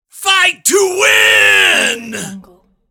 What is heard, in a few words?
male voice battle cry fight to win